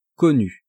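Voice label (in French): "connu", French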